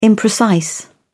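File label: "imprecise", British English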